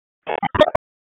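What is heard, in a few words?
Police Spec Ops Tac, radio; Wake; p25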